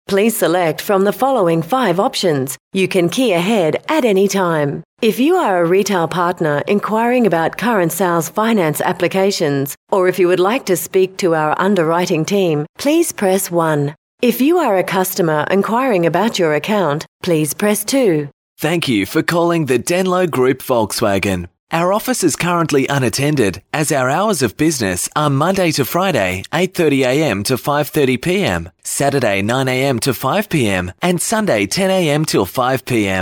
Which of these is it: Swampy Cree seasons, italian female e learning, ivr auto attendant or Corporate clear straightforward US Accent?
ivr auto attendant